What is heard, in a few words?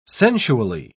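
"sensually", British English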